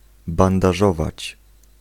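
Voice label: "bandażować", Polish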